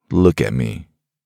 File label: man look at me, deep voice; voice-ringtones